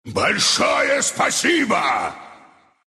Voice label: thanks, ru; Dota 2